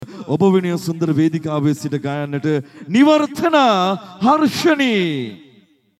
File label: INTRO, ANNOUNCER